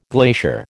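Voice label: "glacier", American English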